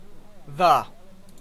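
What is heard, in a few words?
"the", Canadian English, unstressed; pronunciations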